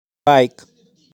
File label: "Bike", transcripción fonética; Transporte